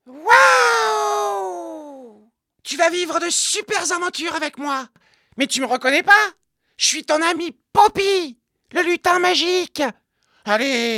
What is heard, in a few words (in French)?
personnage lutin